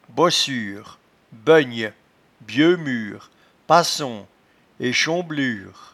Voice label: "bossure", patois jura